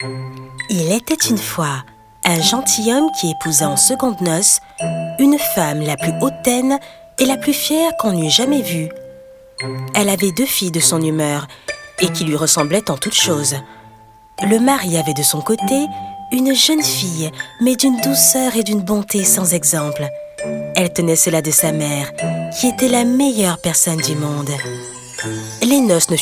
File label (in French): Conte narration medium adulte souriant doux; CENDRILLON Charles Perrault